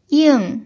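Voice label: "ying4", Mandarin Chinese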